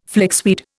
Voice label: "flixweed", Indian